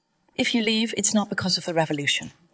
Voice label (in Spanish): Voice Acting es